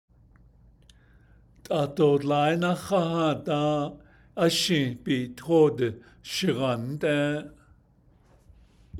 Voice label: navajo, Not many years ago I lived in Salt Lake City